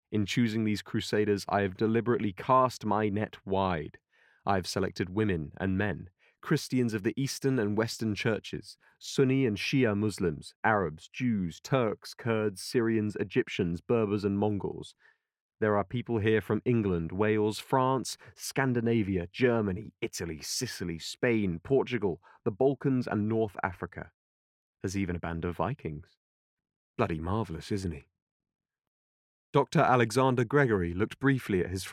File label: Audiobook